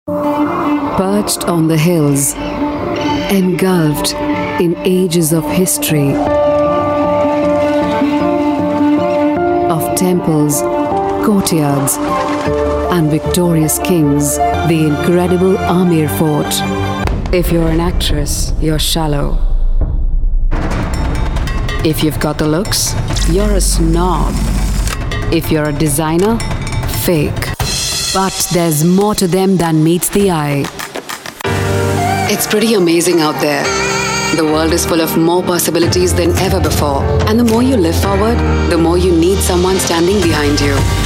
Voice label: English Soft